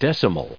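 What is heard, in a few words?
"decimal", US English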